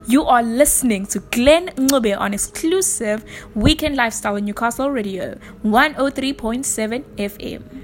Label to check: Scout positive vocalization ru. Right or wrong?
Wrong